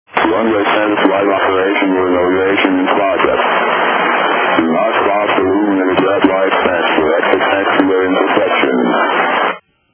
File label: ATIS, USA